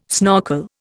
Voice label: "snorkel", Indian